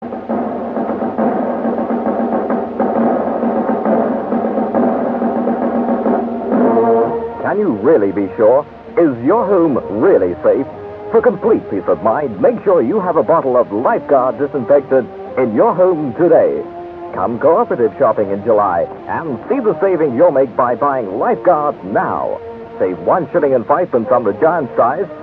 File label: commercial; Lifeguard